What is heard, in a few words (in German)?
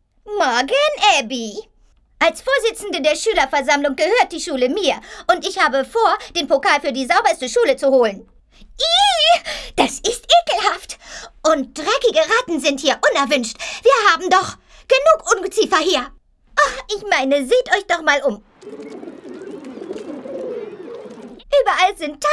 trickstimme